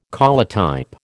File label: "collotype", American